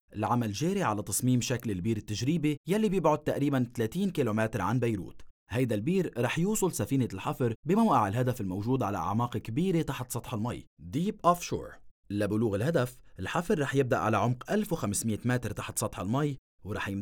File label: Lebanese Dialect Male